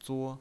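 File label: "zuo", Mandarin Chinese, tone 1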